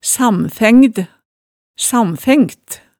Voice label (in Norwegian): "samfængd", hemsedalsmaalet